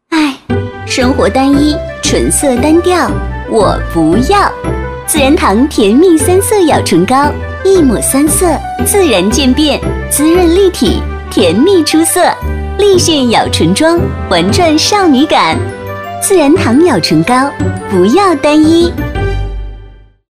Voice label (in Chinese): 广告 欢快甜美; 自然堂